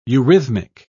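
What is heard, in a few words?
"eurythmic", British English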